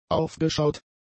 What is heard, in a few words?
"aufgeschaut", German; adjectives